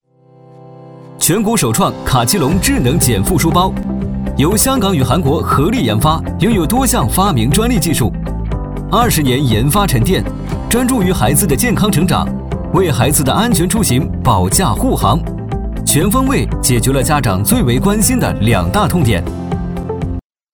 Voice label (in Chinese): 男 产品解说 轻松活泼; 浑厚有力 科技感; 智能减负书包